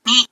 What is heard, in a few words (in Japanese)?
"に", Japanese